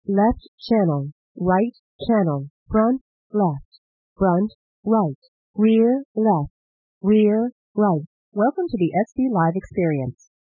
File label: female plane noise